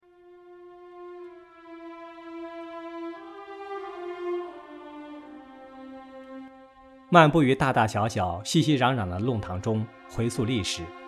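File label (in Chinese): 宣传片样音海派文化魅力配音; 宣传片样音05海派文化魅力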